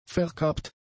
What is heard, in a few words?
"verkappt", German; adjectives